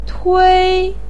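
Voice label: "tui1" Chinese syllable, pinyin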